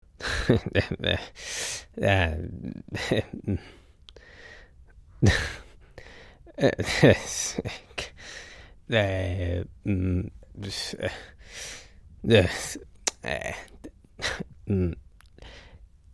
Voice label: male voice and sounds, Male bass